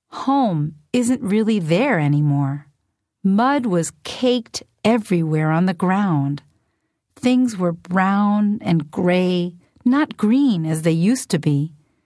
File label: School Storyteller; Nat Geo